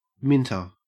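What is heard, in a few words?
"minter", Australian English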